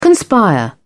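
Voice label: "conspire", English, British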